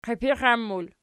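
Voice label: "qiipixan" plural, Archi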